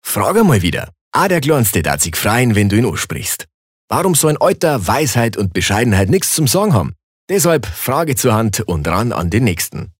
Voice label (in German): Dialekt Bayerisch